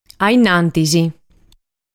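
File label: "Ainnantis", Sardinian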